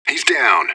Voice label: radio bot nice shot; fbihrt